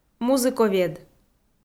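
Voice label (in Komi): "музыковед", Komi, female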